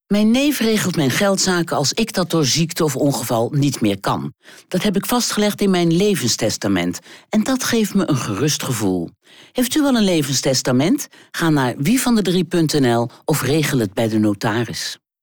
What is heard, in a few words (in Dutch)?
vrouw; Levenstestament 2016